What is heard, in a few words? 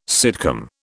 "sitcom", British